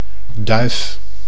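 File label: "duif", Dutch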